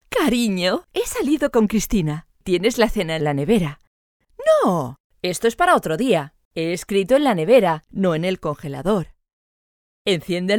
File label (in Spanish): es publi, locutora española; Lasagna